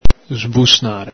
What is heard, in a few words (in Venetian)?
"sbusnàre", Veneto; grumble, mumble; el Galepin; brontolare, rumoreggiare